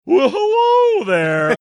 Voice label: saying well hello there funny